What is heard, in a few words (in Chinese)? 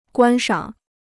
"观赏", Chinese